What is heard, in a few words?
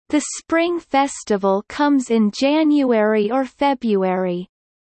Kelly low; spring festival